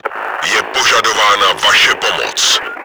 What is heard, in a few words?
assistance been requested, cz; Je požadována vaše pomoc.; Czech; Нужка ваша помощь.; Просьба о помощи; World of Tanks